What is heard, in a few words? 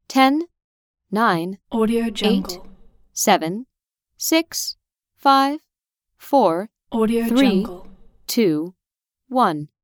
woman count down 10 1